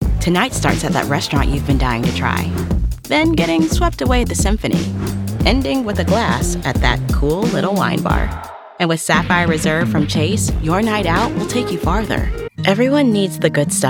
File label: Voiceover, Commercial, Women; New York